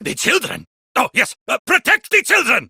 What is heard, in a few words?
Villagers Ostron Male Older en